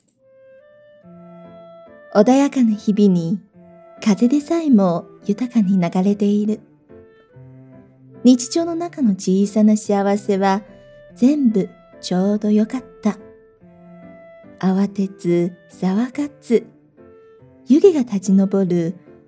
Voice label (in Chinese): 日语 广告; 东芝